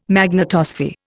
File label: "magnetosphere", Indian